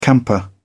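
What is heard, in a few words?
"camper", British English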